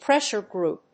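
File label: "pressure group", English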